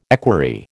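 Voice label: "equerry", American English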